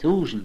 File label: "thoosan", Allendale; SED